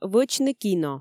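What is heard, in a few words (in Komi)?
"вӧчны кино", Komi, female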